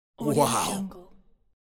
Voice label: male funny wow

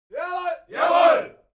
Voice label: human crowd military shout german yessir; smartsoundfx

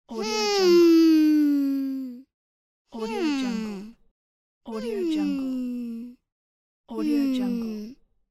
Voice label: boy hmm thinking idea

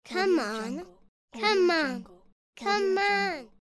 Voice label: little boy voice come on

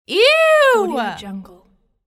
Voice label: female voice eww

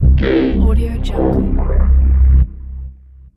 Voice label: game over dark voice

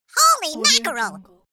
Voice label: cartoon voice holy mackerel

